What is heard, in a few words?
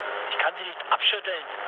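German aircraft damaged mood low, speech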